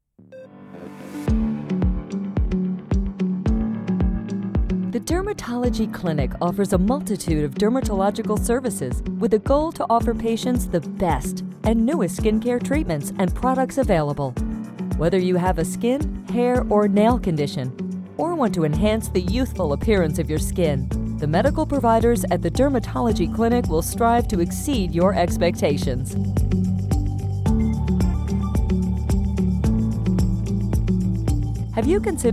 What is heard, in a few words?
Spa Beauty Narration